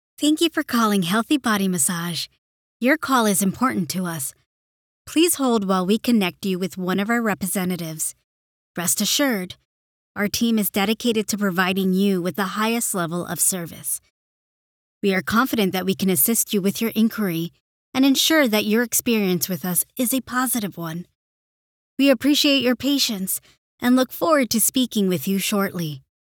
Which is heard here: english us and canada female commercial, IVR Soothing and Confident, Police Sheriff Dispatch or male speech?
IVR Soothing and Confident